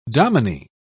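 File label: "dominie", British English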